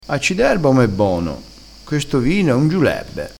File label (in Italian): "giulebbe", crespinese